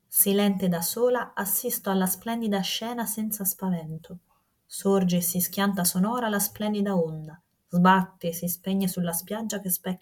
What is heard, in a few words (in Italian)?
sibilanti tonor tc 777